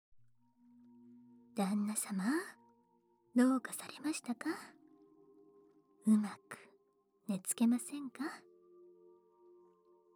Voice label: ダブル安眠誘導 アナザーBGM; 新妻わかな＆わかば

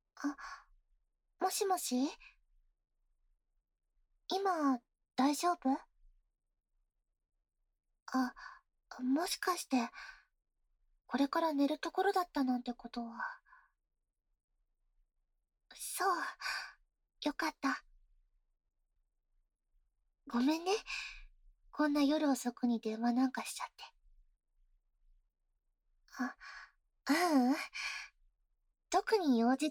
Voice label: 電話エッチ (エフェクトなし)

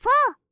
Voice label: "フォー", カウント

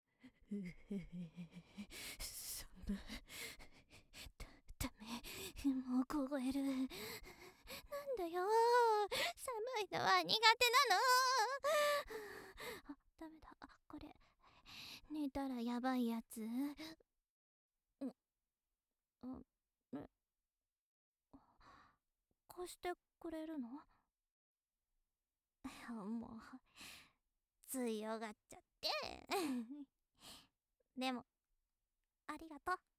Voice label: 元気JK 冬だね

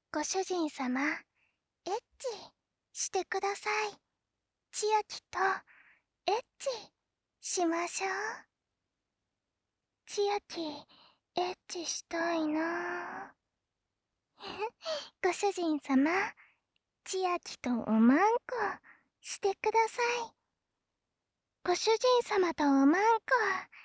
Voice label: エッチしよ はにかみ, 左, バイノーラル; ハーレムオナサポ 4人のメイドがご主人様のオナニーをお手伝いするバイノーラル～文、千曜、名月、莉桜～

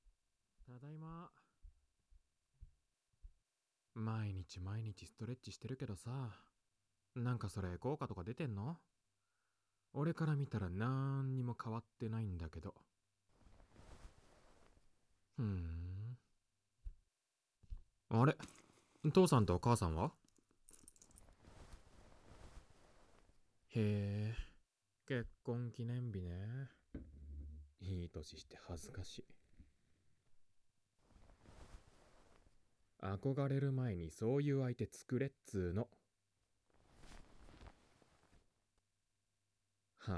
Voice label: バイノーラル, ASMR

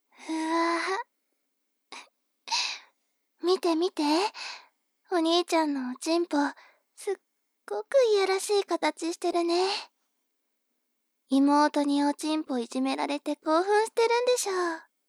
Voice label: 妹 台詞

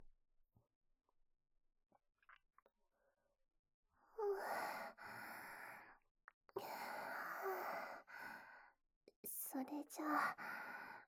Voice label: 首舐め 乳首舐め, ASMR

